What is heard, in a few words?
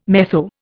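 "metho", English, Indian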